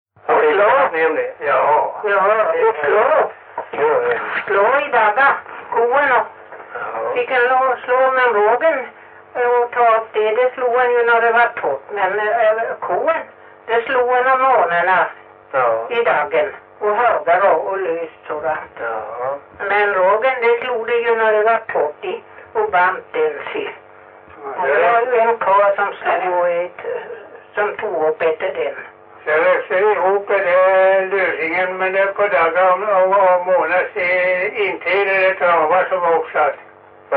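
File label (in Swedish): Stenåsa Öland, dialekter; Folkminnen; Svenska sagor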